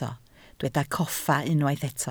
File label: "coffa", Welsh